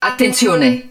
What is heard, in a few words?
hud warning, Italian; XBOX; BattleEngineAquila